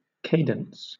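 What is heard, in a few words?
"cadence", British English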